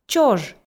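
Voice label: "чож", Komi, female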